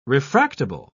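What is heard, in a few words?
"refractable", English, uk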